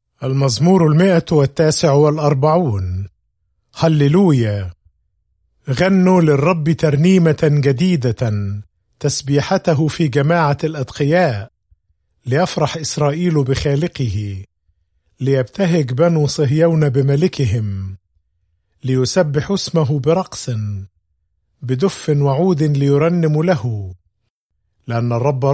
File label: Psalm, arabic bible; psalms